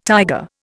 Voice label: "tiger", Indian